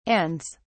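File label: "ands", British English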